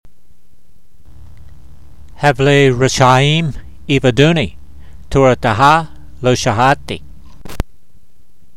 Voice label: voice, hebrew language; Psalm 119:61; hevlay re'sha'eem ee've'doonee, tora'te'ha lo sha'hahtee